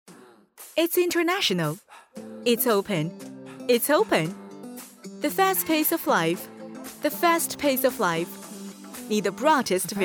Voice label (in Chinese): 女 中文专题; 万科尖岗山